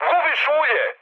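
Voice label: Serbian damaged oil mood high; speech; War Thunder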